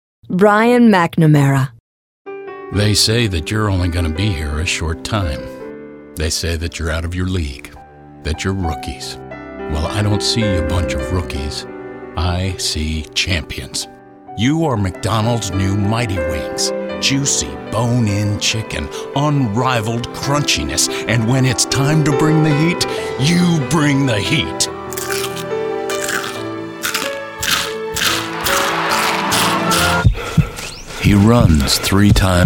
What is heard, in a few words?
commercial, men